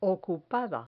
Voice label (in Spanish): "ocupada", Spanish